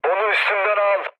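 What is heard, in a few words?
aircraft damaged, mood low, Turkish; War Thunder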